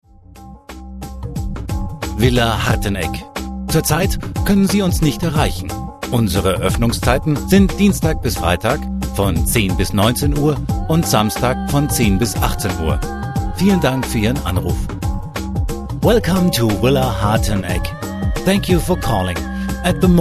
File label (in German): Anrufbeantworter; Villa Harteneck